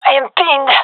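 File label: pinned, gendarmerie female; vo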